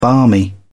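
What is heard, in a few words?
"barmy", British English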